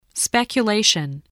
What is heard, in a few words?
"speculation", English voice